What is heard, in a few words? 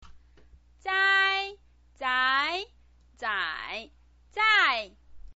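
"zai", Mandarin Chinese pinyin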